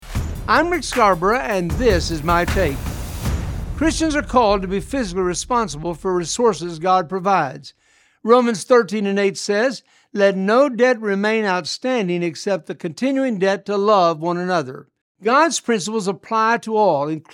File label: Radio Spot; Rick Scarborough; Balance Budget